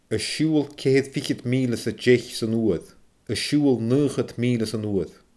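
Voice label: "a siubhal 90 miile san uair", Scottish Gaelic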